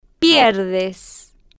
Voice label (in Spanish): "pier-des", Spanish